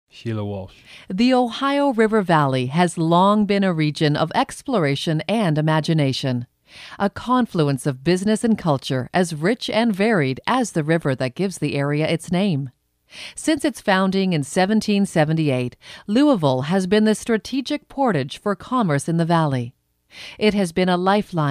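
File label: Narration, Voice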